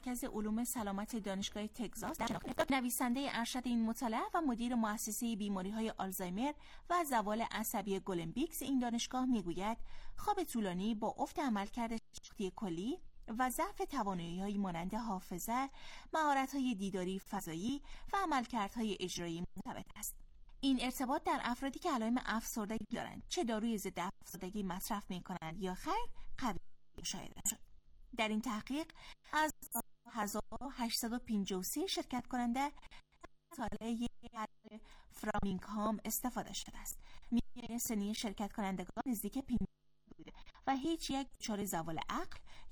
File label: Dari